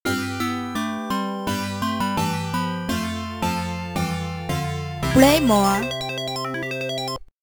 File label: Game Over (English Ver.); psycho soldier; msx